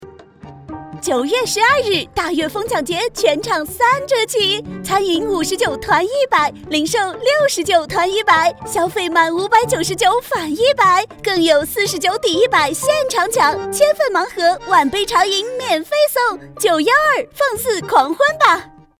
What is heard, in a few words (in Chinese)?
女 促销 活动广告; 大悦城